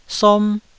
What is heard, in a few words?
"som1", Taiwanese syllable